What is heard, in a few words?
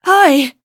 touch, en; Cookie Run